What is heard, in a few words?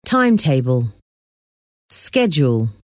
"timetable", "schedule"